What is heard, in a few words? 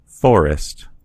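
"forest", American English; gcompris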